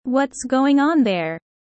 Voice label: low; studying abroad